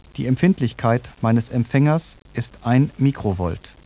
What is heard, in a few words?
SPEECH GERMAN